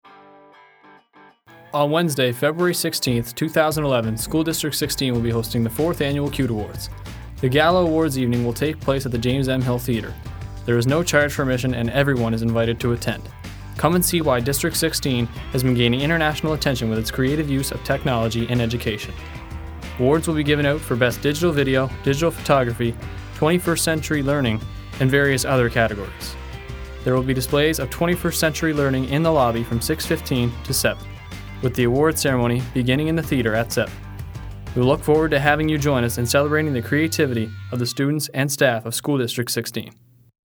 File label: radio spot; cute awards 2011